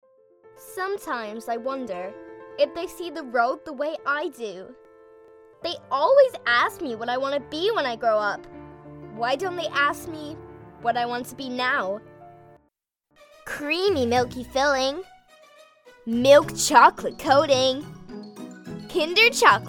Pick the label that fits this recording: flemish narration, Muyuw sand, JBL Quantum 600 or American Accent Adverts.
American Accent Adverts